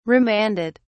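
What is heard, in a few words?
"remanded", British English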